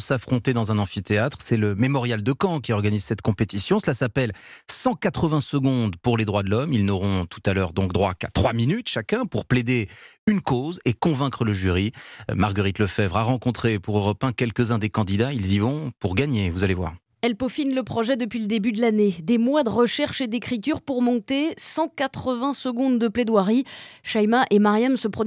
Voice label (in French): Reportage concours éloquence